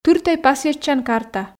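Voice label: "тыртӧй пасйӧдчан карта", Komi, female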